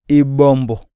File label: touch, iyasa